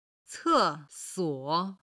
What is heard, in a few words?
"ce4 suo3", Chinese